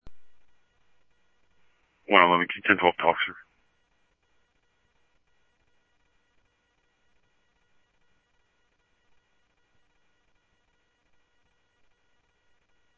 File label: Scottsdale PD Scottsdale Police, scanner audio